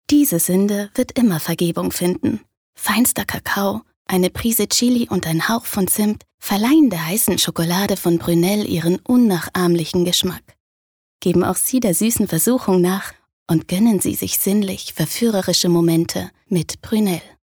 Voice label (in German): Sinnlich